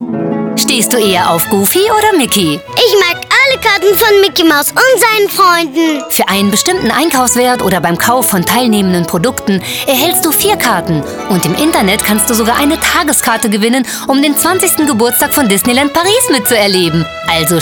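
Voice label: German, commercial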